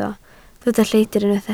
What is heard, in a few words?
"lleidr", Welsh